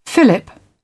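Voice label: "fillip", British English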